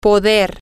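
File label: to can be able to, Spanish, female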